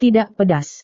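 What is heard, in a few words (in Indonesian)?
"tidak pedas", Indonesian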